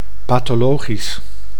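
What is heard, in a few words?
"pathologisch", Dutch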